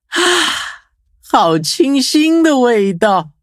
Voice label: levelup zh-hant; Cookie Run

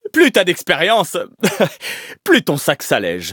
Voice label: levelup, fr; cookie run

